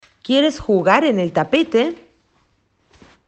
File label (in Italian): Spagnolo